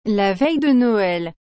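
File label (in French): "la veille de Noël", French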